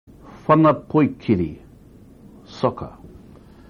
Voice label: "whana poikiri", Maori